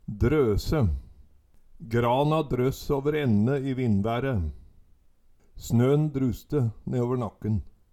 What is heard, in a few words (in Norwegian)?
"drose", Numedalsmål